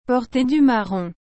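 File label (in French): "porter du marron", French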